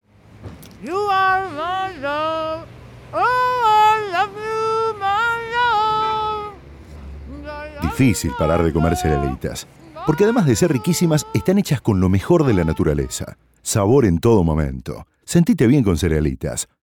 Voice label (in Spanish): hablados, acting; cerialitas